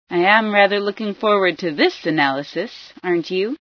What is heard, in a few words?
analysis, female sci